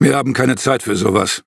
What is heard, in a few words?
defeat, de; Cookie Run